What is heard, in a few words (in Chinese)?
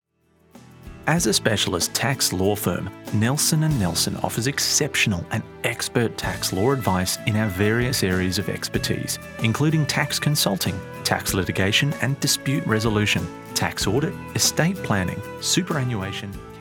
外籍男 服务产品介绍 广告